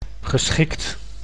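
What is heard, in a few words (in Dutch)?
"geschikt", Dutch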